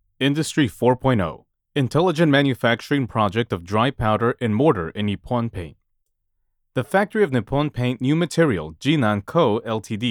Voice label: 旁白, 英文试音; 济南智能制造

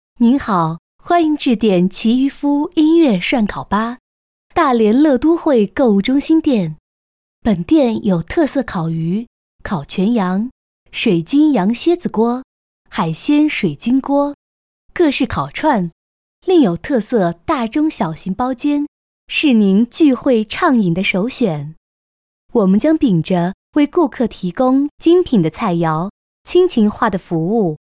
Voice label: 女, 彩铃, 国语女声; 奇鱼夫音乐涮烤

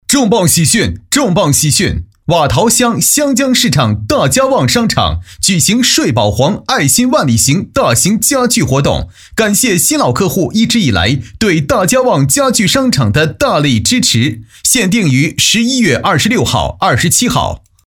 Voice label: 男 叫卖 宣传配音; 瓦塘乡香江市场大家旺商场

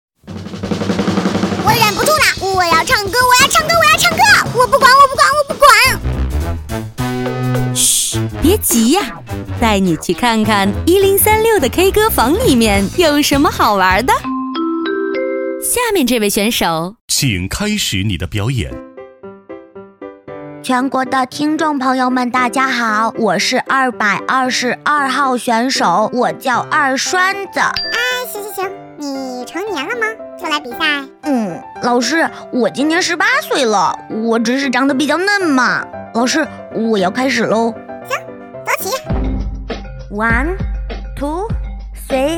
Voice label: 女 广播; K歌房

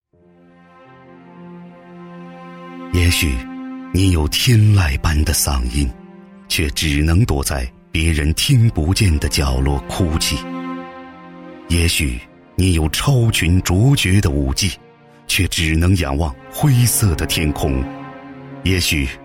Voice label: 男 广告 磁性深情, 国语男声配音, 浑厚; 贵族教育

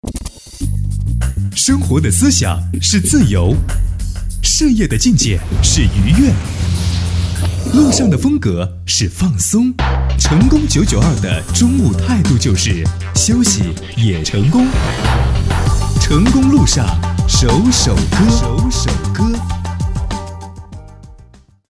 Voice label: 男 宣传片(青春 时尚) 科技宣传; 大气沉稳; 成功路上首首歌

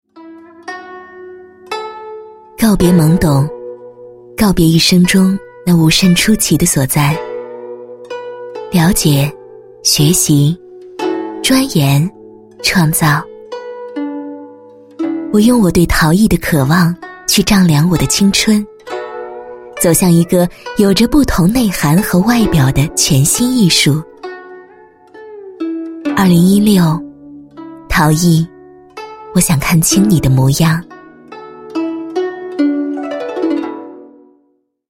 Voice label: 国语女声配音 解说 成熟 知性 庄重感; 陶艺介绍

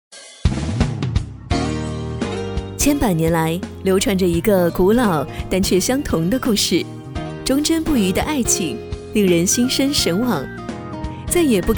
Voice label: 女 广告宣传 婚礼 温柔甜美

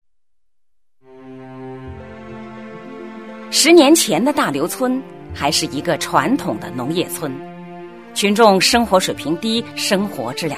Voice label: 女 人物类, 成熟专题; 国语女声配音; 百姓心中的好书记

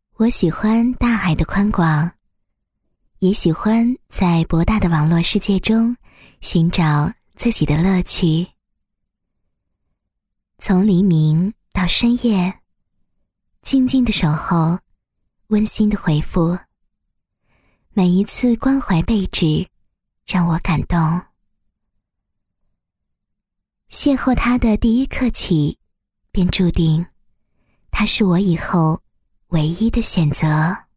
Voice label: 女19 沙画 旁白 甜蜜 轻悠 亲和, 国语女声配音; 文静沙画

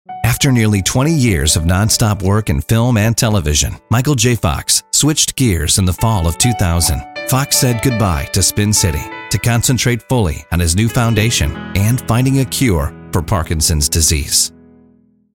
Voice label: 外籍男 解说 Narration; MICHAELJFOX

